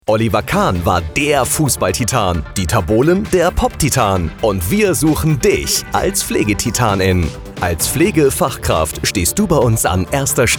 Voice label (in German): Radio; Pflegetitan